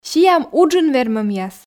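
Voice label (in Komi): "сиам уджын вермӧмъяс", Komi, female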